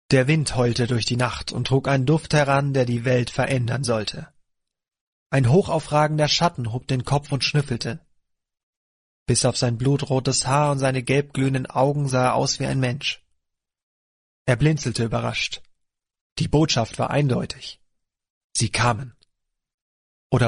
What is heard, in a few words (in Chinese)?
德语男声